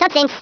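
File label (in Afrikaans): "Byebye", Afrikaans; Speech; International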